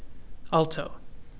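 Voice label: "alto", CAN, male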